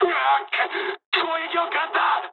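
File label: aircraft damaged mood med, speech japanese; War Thunder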